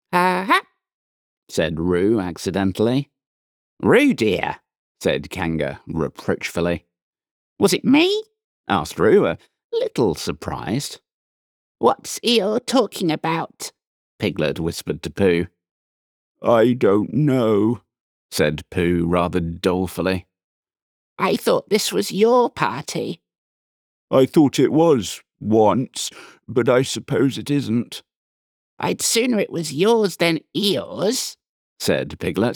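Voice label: Children's Sample, British Male Audiobook Narrator, British RP voice; Audiobook & Studio Samples; Winnie the Pooh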